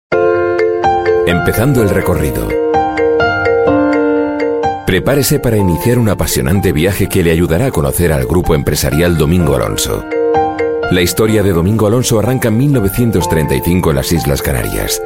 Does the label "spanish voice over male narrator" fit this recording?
Yes